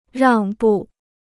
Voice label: "让步", Chinese

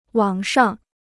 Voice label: "网上", Chinese

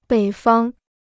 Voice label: "北方", Chinese

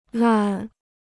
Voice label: "烂", Chinese

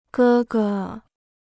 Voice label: "哥哥", Chinese

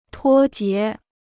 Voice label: "脱节", Chinese

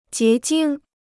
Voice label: "结晶", Chinese

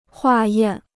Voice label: "化验", Chinese

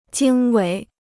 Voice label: "经纬", Chinese

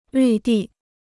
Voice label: "绿地", Chinese

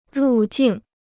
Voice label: "入境", Chinese

